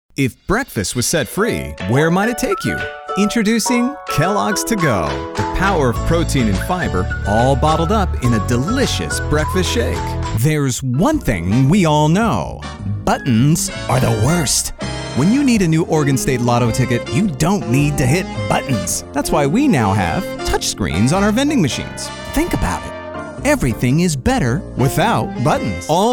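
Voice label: voice over Commercial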